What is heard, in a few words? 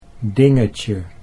"dingetje", Dutch